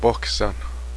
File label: kittens, cheyenne language